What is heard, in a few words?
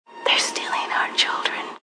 Stealing, Whispering, Female; Whispering Sound Effects